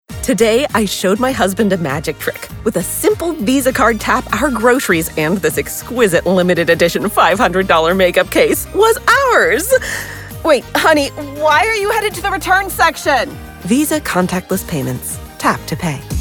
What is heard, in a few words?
commercial